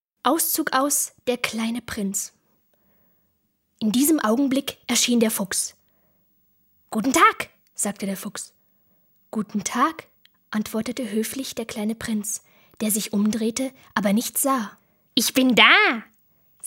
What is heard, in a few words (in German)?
Erzaehlung; sprecher